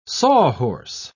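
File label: "sawhorse", English, British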